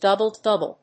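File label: "double-double", English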